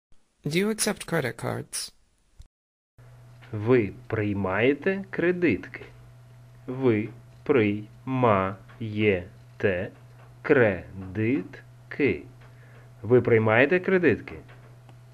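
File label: Ukrainian Do you accept credit cards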